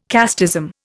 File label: "casteism", Indian